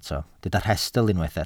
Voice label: "rhestl", Welsh